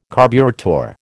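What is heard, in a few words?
"carburettor", American English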